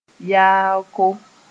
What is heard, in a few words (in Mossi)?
"Yaooko", moore